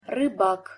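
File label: "rybak", Russian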